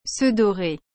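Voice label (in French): "se dorer", French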